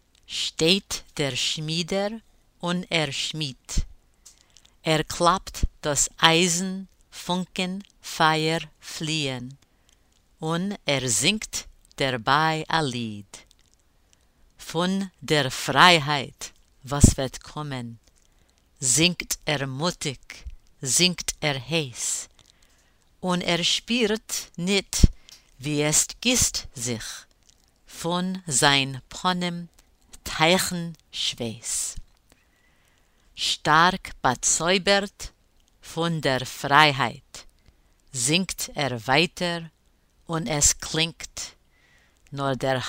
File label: In der kuznye pronunciation